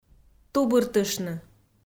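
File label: "тубыртыштны", Komi, female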